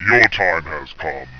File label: "your time has come", rumbly